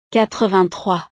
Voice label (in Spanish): "quatre-vingt-trois", frances